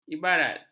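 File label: "ibarat", Malay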